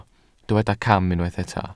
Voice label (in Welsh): "cam", Welsh